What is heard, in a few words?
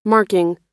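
"marking", American English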